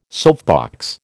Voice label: "soapbox", American